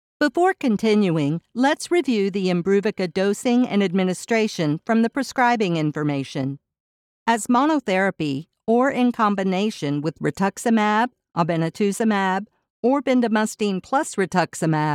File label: Clear · Professional · Instructional